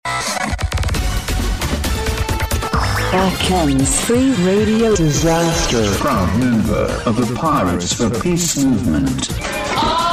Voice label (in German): JINGLE PROMO; ACHIMS FREE RADIO DESASTER; PROUD MEMBER of the P4P MOVEMENT